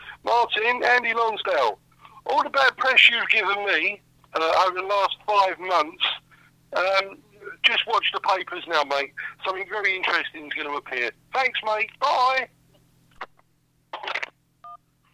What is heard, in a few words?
voicemail